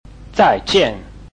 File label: "zai4jian4", Chinese